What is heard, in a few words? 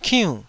"kiunn4", taiwanese syllable